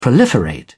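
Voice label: "proliferate", British English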